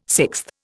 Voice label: "sixth", Indian

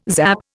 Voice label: "zap", Indian

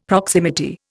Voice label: "proximity", Indian; Dictionary

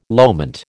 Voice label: "loment", American

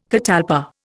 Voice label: "catalpa", Indian

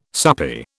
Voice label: "soupy", British

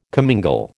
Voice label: "commingle", American

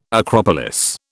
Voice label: "acropolis", British

